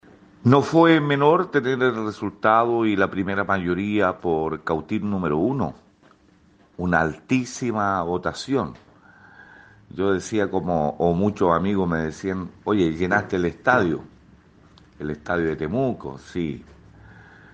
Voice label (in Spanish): Cuña